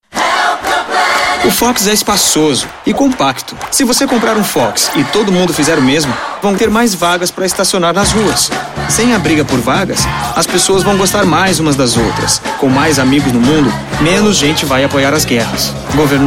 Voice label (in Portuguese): filme conversado